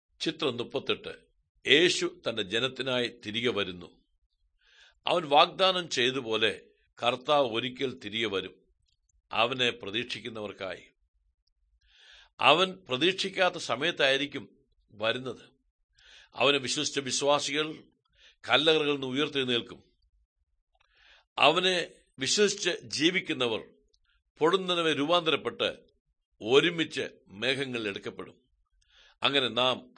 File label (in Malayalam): good news malayalam, drama